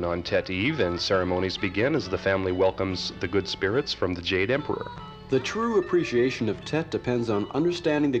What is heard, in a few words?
Radio Spot: Tet ( Vietnamese Holiday ) Description; Mono